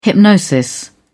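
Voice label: "hypnosis", British English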